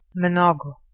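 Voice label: "monogho", Proto-Indo-European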